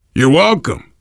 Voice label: you are welcome, voice, biker

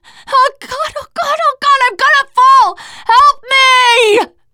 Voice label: ledge hang end, teengirl; player; survivor; voice

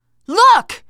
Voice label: look, teen girl; player; survivor; voice

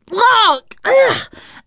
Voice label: boomer reaction, teengirl

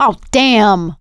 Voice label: reaction negative, survivor voice; producer

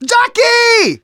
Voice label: jockey, survivor voice; Left 4 Dead 2; left4dead2 dlc2

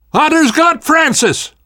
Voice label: hunter pounced, survivor voice namvet; Left 4 Dead 2; left4dead2 dlc2

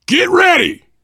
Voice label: mini finale get ready, voice, biker; Left 4 Dead 2; left4dead2 dlc3; survivor

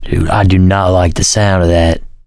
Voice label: heard special, survivor voice

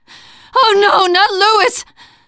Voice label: grief manager, teengirl; player; survivor; voice

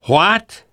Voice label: generic responses, survivor voice; namvet; Left 4 Dead 2; left4dead2 dlc2

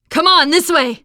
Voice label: follow me, teengirl, voice

